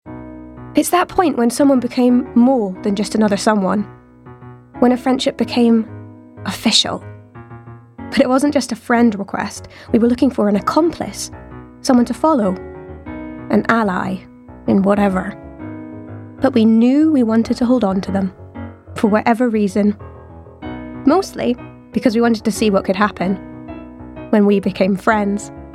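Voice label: commercial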